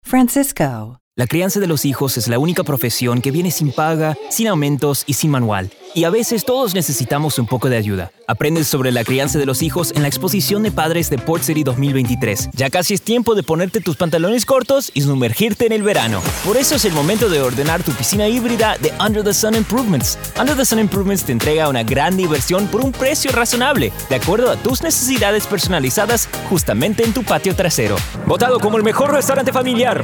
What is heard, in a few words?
Spanish Showcase